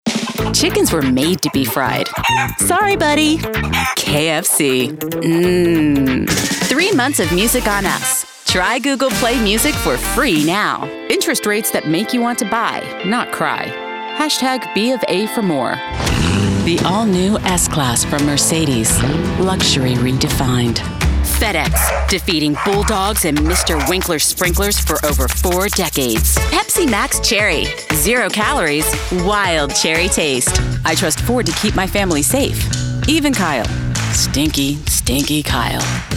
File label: Commercial